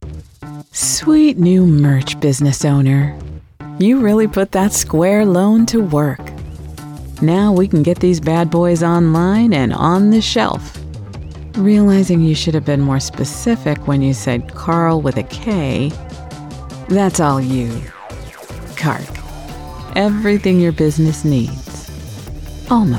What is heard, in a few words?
Humorous Voices; Square Loan